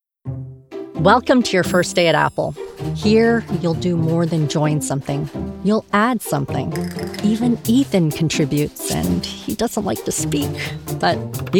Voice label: E-LEARNING; APPLE